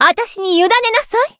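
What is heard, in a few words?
Voice limit break, Japanese; sherinu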